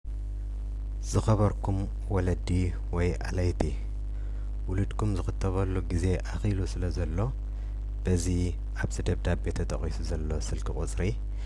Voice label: Tigrinya Audio